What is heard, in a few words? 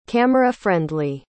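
"camera-friendly", English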